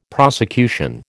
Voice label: "prosecution", American